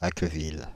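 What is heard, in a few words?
"Acqueville", French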